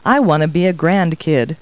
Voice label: people, kid